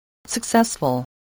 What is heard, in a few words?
"successful", spelling